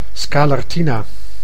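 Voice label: "scalartina", Dutch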